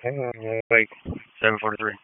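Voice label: Sheriff's Office Dispatch; WSO DISP 1; wake p25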